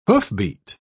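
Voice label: "hoofbeat", British English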